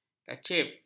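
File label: "kacip", Malay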